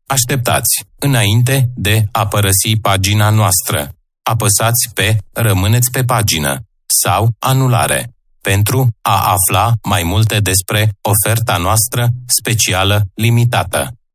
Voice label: IVR Romanian Dry; J Factory